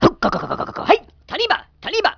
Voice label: vox jawa